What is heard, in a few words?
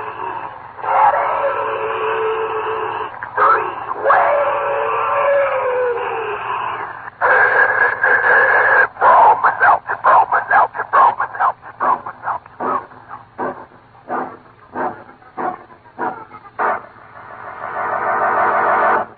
Commercial Bromo Seltzer